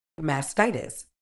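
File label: "mastitis", British English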